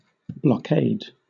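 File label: "blockade", English, British accent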